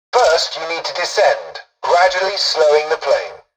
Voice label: tutorial dialogue, english; War Thunder